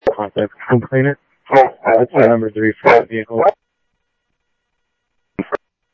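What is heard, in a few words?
Sheriff West County, scanner audio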